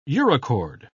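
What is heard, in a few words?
"urochord", British English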